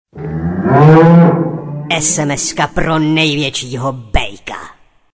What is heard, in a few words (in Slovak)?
sms hlásky cz